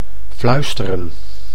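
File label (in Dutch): "fluisteren", Dutch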